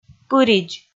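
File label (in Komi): "пыридз", Komi, female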